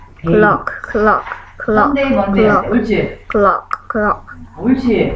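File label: "clock", spoken word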